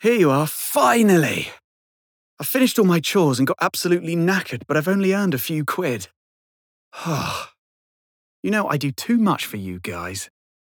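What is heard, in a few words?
voice English welcome; Outsider; Aote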